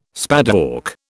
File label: "spadework", British